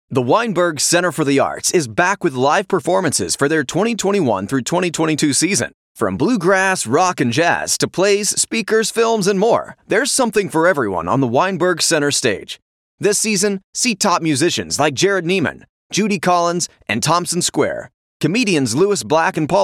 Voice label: Promotional Video; Weinberg